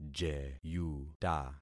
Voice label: "jeyuta", concat syllables; Affixing experiment

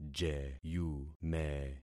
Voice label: "jeyume", concat syllables; Affixing experiment

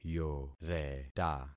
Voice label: "yoreta", concat syllables; Affixing experiment

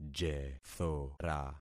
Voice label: "jethora", concat syllables; Affixing experiment

